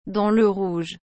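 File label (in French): "dans le rouge", French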